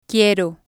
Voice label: "quiero", Spanish

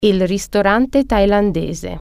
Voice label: thai restaurant, italian

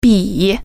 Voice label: "pen", Chinese

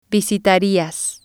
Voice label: "visitarias", Spanish

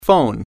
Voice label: "phone", french

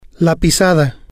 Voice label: "la Pisada", spanish

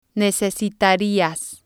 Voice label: "necesitarias", spanish

